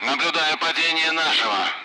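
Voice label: Speech Sound Russian Common Allied Flight Messages loud; member down; DCS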